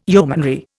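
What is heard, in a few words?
"yeomanry", Indian English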